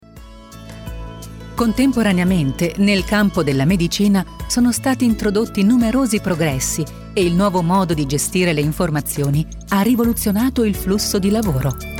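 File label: IT F corporate, Italian, female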